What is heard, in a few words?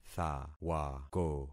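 "thawako", concatenated syllables; Affixing experiment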